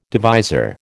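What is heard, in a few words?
"divisor", American English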